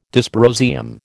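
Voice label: "dysprosium", American English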